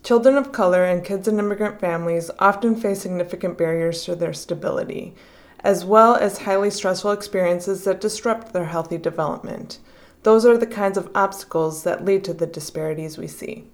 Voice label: english; RaceforResults